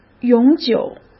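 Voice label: "永久", Mandarin Chinese; chinese flashcards; hsk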